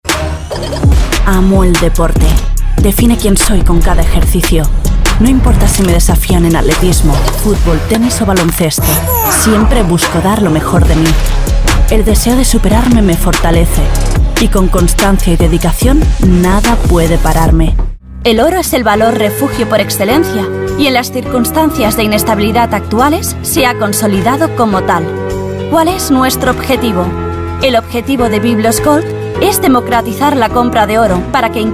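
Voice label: Spanish, seslendirme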